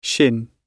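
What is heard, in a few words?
"shin", British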